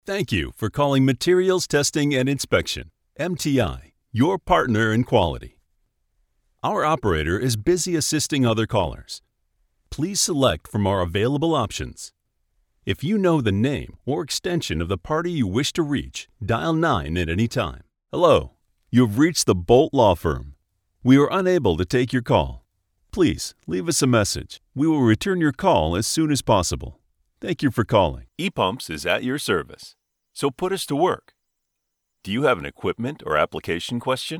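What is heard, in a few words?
interactive voice response Phone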